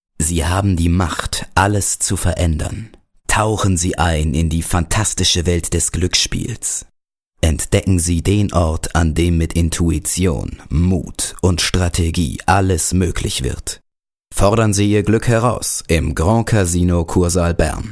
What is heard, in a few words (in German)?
werbung casino; LOFT VOICES